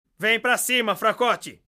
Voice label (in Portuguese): vozes antigas; sieghart